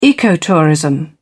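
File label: "ecotourism", British English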